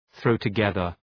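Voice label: "throw together", English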